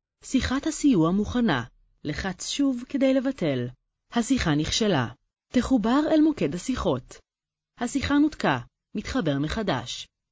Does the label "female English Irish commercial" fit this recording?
No